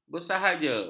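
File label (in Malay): "bersahaja", Malay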